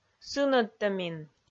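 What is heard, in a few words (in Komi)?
"сынӧдтӧмин", Komi, female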